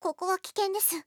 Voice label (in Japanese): ここは危険です, クール; ハイグレボイス集